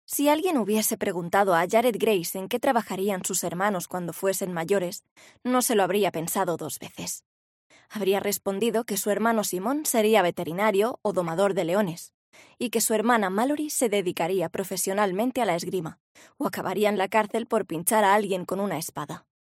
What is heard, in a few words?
female, audiobooks